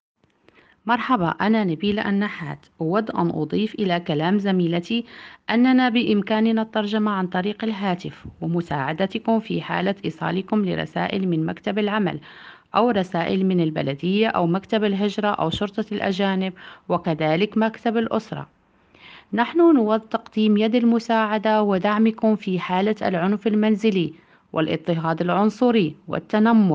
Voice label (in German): arabisch